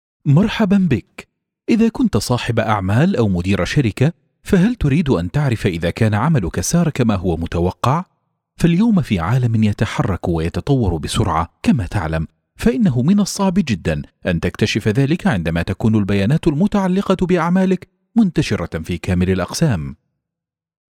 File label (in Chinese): 阿拉伯语男 干音 陈述